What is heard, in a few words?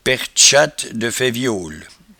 "pertchatte de faiviole", patois jura